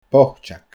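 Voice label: "boiteag", Scottish Gaelic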